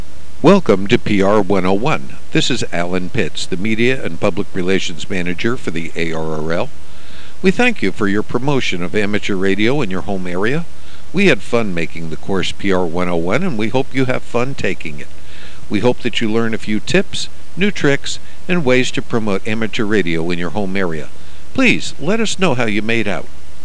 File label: welcome speech; AmateurRadio; Fieldday; PR-101 course